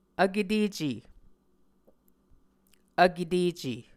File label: "vketece", Muscogee